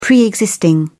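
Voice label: "pre-existing", English, British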